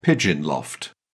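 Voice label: "pigeon loft", British English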